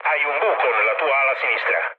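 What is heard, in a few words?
Italian left wing mood low, speech; War Thunder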